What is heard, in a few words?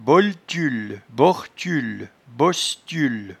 "boltiule", patois jura